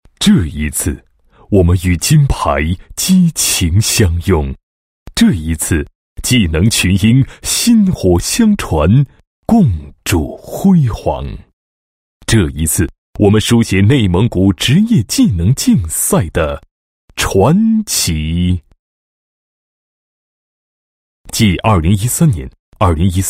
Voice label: 男 汇报片 技能大赛情况汇报片文字材料, 配音案例

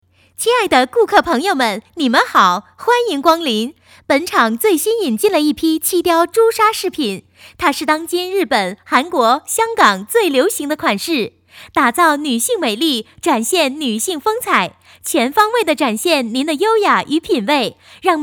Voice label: 女 促销 饰品和太阳镜, 国语女声配音

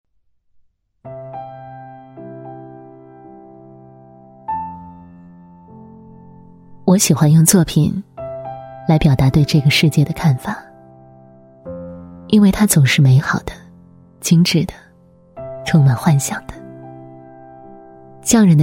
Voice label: 女4号 形象片 内心独白, 国语女声配音; 优雅; 匠人修行

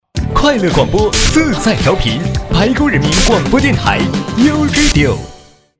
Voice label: 男 青春台标, 国语男声配音 磁性; 白沟

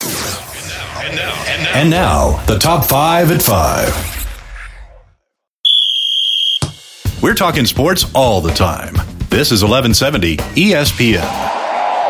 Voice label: 外籍男 播客扫地机商业广告

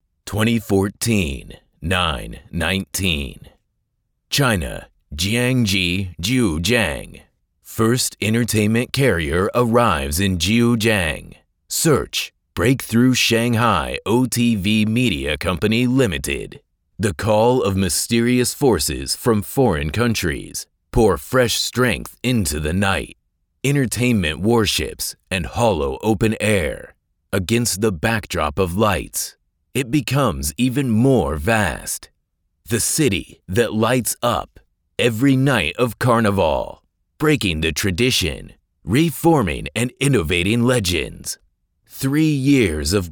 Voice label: 酒吧广告片

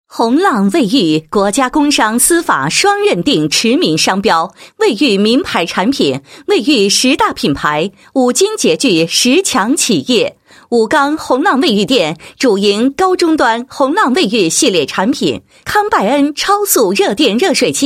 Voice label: 女 产品介绍 卫浴宣传介绍; 宏浪

